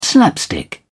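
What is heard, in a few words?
"slapstick", British English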